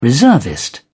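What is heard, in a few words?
"reservist", British English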